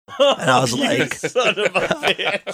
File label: laughing saying oh you son of a bitch